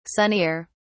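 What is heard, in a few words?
"sunnier", English, uk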